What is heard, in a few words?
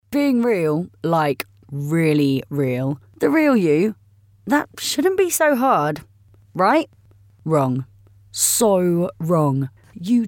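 corporate gen z brit female voice over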